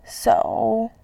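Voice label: "sou", Mandarin Chinese, third tone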